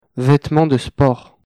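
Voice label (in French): "vetement de sport", French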